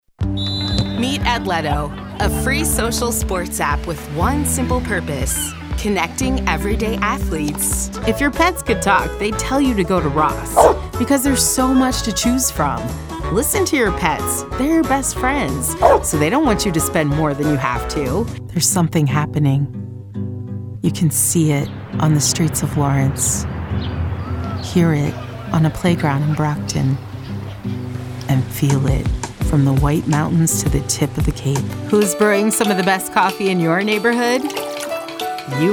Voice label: Commercial